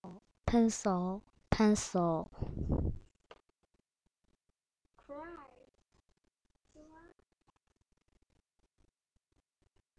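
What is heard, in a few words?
"pencil", spoken word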